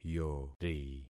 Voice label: "yori", concat syllables; Affixing experiment